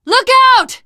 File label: lookout, teen girl voice; survivor voice